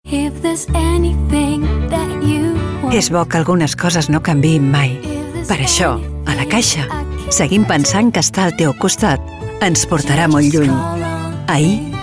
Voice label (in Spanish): Demo locutora